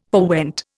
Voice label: "forewent", Indian